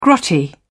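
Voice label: "grotty", British English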